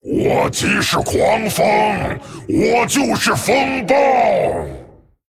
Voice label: Male Dragon Start; ▶我即是狂风！我就是风暴！ ▶I am the tempest! I am the storm!; zh; 开场白 Opening Remark; 风暴巨龙迦拉克隆

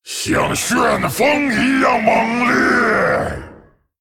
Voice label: Male Dragon WOW; ▶像旋风一样猛烈！ ▶What a whirlwind!; 风暴巨龙迦拉克隆; 惊叹

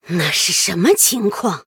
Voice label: Female Human Wow; 惊叹; zh; 对决模式; 渗透者莉莉安

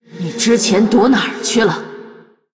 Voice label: Female Blood Elf Greetings; zh; 问候 Greeting; 虚空之影瓦莉拉; Valeera Deathknight

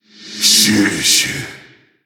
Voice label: Male Orc, My thanks.; ▶谢谢。; zh; 感谢; 死亡猎手雷克萨; Rexxar Deathknight

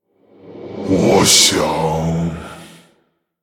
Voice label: Male Orc, I wonder...; 思考3; ▶我想...; 死亡猎手雷克萨

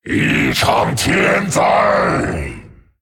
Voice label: Male Dragon Oops; ▶一场天灾！ ▶A natural disaster!; zh; 失误; 风暴巨龙迦拉克隆

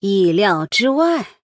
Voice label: Female Human Oops; 对决模式; 渗透者莉莉安; 失误

